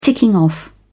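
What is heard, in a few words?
"ticking off", British English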